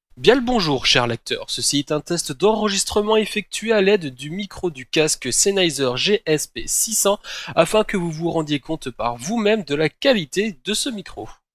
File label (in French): test micro sennheiser gsp 600